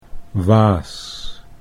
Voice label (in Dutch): "waas", Dutch